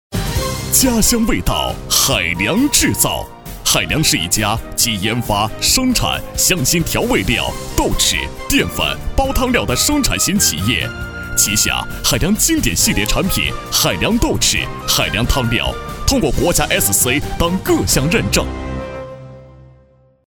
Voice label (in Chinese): 广告 食品饮料 大气, 男; 海良制造